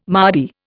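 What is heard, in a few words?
"mardy", Indian English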